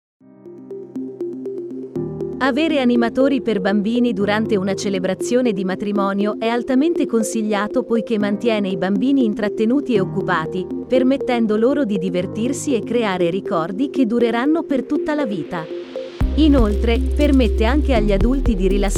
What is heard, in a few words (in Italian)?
Italian